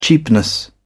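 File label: "cheapness", British English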